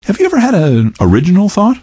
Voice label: answer, male; Garry's Mod; hl2